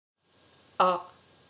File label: "a", french